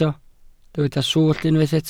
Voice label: "swllt", welsh

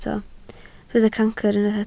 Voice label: "cancr", Welsh

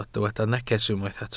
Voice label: "neges", Welsh

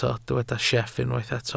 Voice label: "sieff", Welsh

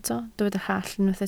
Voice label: "hallt", Welsh

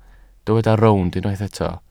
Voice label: "rownd", Welsh

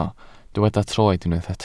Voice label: "troed", Welsh

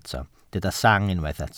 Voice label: "sang", Welsh

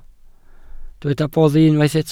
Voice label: "boddi", Welsh

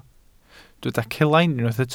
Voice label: "celain", Welsh

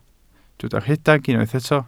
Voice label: "rhedeg", Welsh

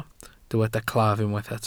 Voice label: "claf", welsh

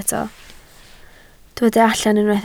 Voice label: "allan", Welsh

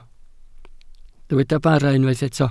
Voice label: "bara", Welsh

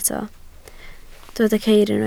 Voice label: "ceir", Welsh

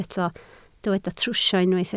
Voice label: "trwsio", Welsh

